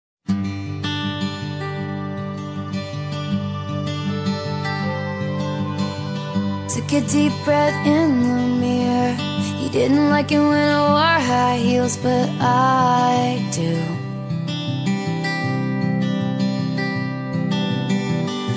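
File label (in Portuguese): birthday IRMA DISTANTE male, telemensagem; aniversario irma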